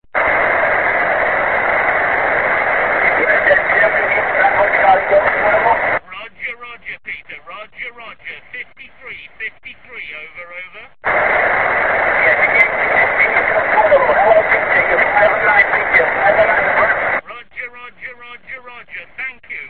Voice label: qso